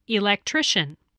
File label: "electrician", English